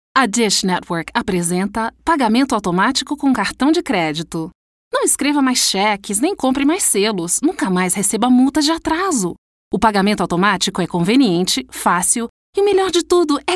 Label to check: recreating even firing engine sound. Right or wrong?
Wrong